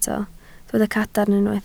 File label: "cadarn", Welsh